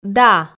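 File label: "да", Komi, female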